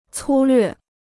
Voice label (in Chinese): "粗略", Chinese; cū llüè; cursory